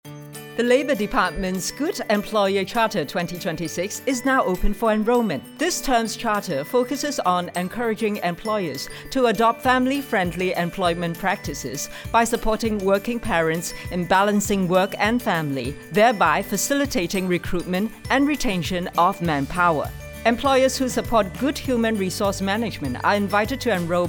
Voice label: radio english recording; ge 2026